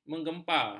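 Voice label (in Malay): "mengempa", Malay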